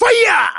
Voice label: hiyaaa; falco; melee

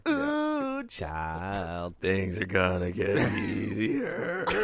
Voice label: ohhh child

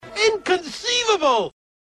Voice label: inconceivable louder

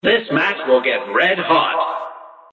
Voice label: narrator this match will get red hot; cuphead

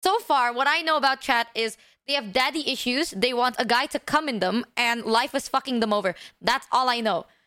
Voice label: chat

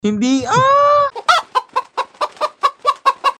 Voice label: hindi ah

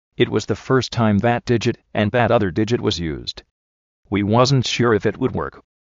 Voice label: announcer

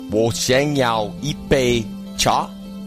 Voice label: chinese man

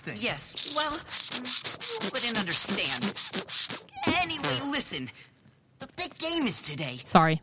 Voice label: teen sounds sorry

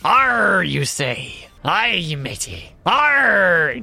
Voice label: pirate

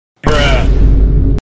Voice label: "bruh" vine boom

